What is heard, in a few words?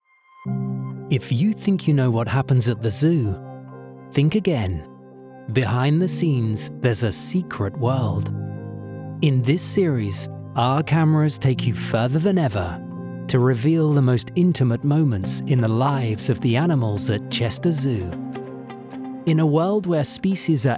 Documentary